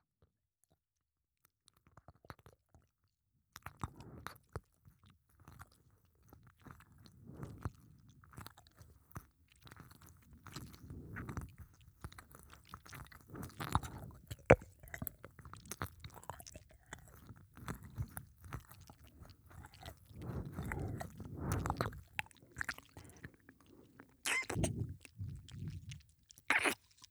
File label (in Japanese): 誰にでもできる乳首開発のつづき（ひたすら乳首愛撫＆新たな性感開発）, ASMR